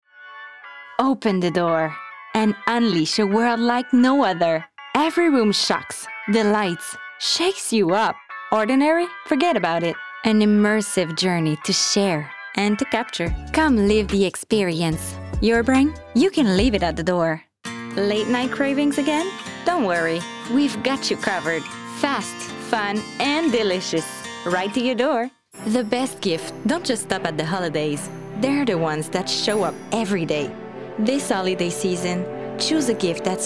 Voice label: english